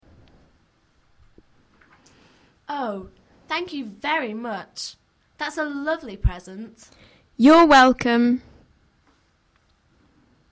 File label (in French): anglais dial